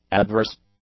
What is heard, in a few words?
"adverse", English; adjectives